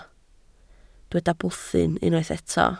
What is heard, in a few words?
"bwthyn", Welsh